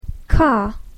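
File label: "car", English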